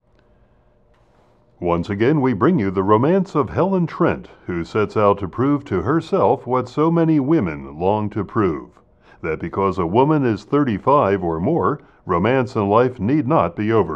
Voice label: announcer; Helen Trent